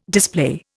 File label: "display", Indian